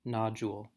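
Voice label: "nodule", US English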